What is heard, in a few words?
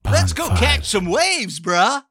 male comical lets go catch some waves bruh